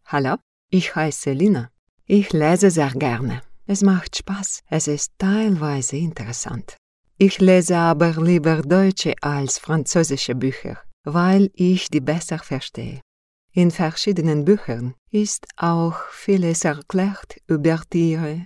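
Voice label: German language voice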